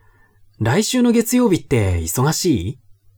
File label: man